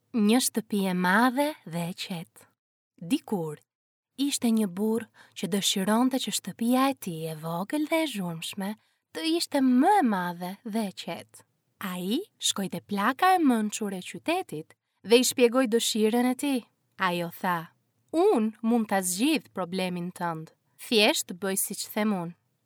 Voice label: female albanian voice over narrative